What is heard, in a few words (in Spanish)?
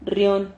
"rrión", silabas